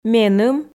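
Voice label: "меным", Komi, female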